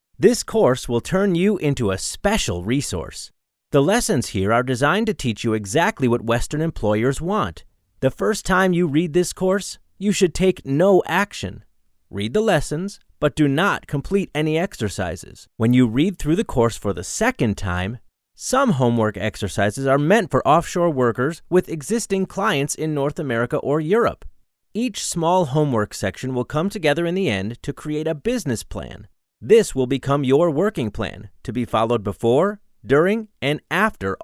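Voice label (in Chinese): 外籍男声 美式英语 日常口音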